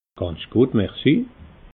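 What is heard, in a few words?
"ganz guet", Alsatian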